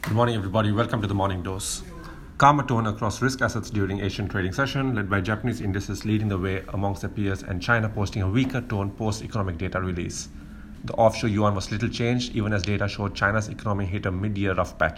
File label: voice note; fixed income